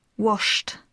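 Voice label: "washed", English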